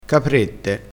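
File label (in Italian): "caprette", dialettale crespinese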